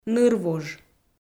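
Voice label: "нырвуж", Komi, female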